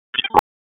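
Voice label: Police Southwest District Disp, radio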